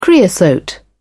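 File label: "creosote", British English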